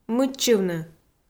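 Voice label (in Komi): "мыччывны", Komi, female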